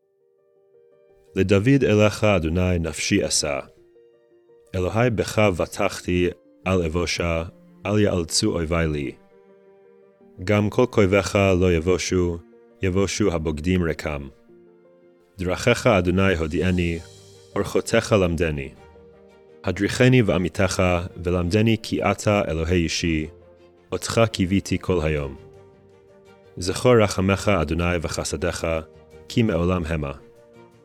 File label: poetry and prayer psalm hebrew